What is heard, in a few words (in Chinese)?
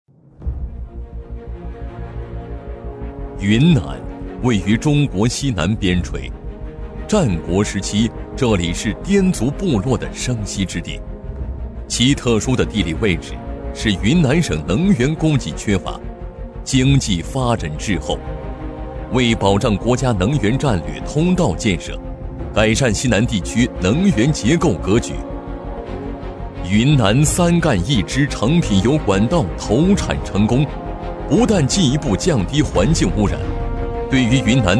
男 专题; 云南成品油管道投产